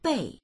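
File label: "bei", Mandarin; 背; 暗記する; dictionary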